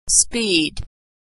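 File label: "speed", American English; verb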